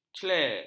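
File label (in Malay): "clair", Malay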